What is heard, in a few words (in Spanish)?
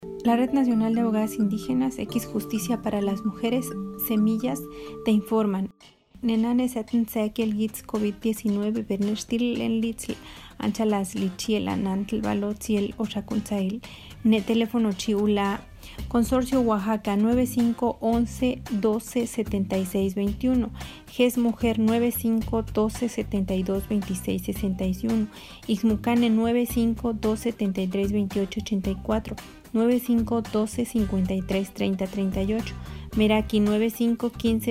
Zapoteco Sierra Sur; Capsula COVID OAXACA